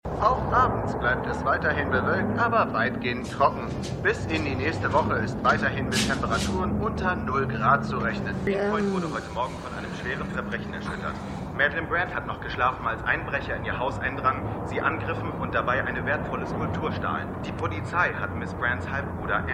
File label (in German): TV Sprecher; Jessica Jones